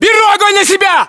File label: inform suppressed generic, battlechatter ru